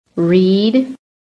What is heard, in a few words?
"READ", american